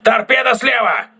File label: voice message torpedo left, crew ships russian